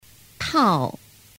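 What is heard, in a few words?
"tao", Mandarin Chinese; phan biet am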